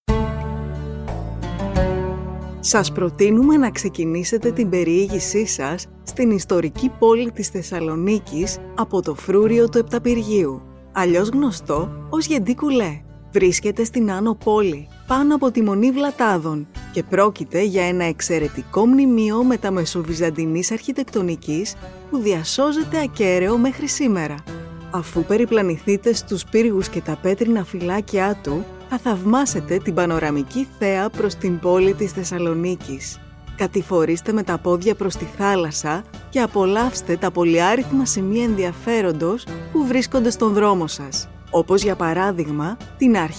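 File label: Greek, female, tour guide; voice over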